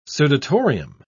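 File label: "sudatorium", British English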